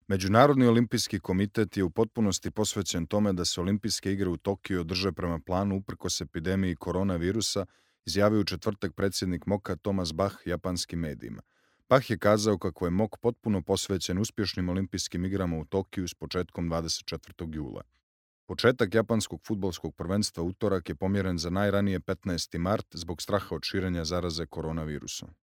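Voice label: Montenegrin, male; montenegro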